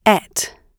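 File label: "ate", British English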